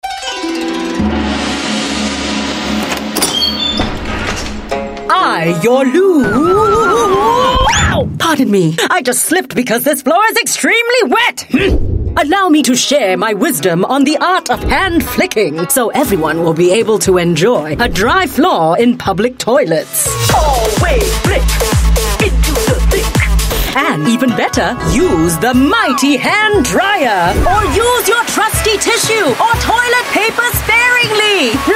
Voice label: Asian English; LooGuru; Keep The Floor Dry